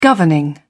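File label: "governing", English, British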